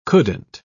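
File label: "couldn't", British English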